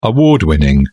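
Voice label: "award-winning", British English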